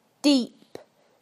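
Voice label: "deep", British English; PictureDictionary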